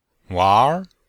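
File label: "wanr", Chinese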